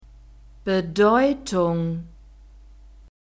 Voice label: "Bedeutung", German; diphthongs

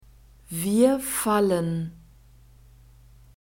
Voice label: "wir fallen", German; verbs present tense irregular

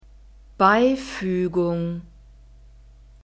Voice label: "beifuegung", German

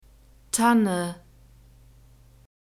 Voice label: "tanne", German; nouns gender feminine

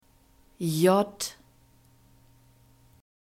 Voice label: "j", German alphabet

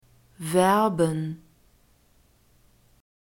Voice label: "werben", German; verbs present tense irregular

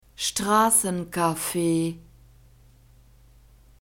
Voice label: "strassencafe", German; neuter

